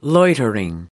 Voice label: "loitering", us